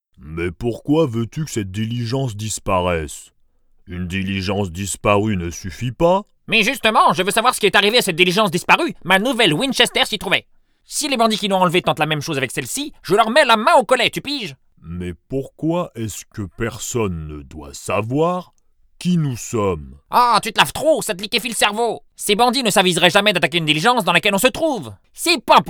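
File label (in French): cartoon, voix off masculine; voix off masculine naturelle, posée, souriante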